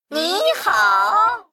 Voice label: Male Mech Oops, zh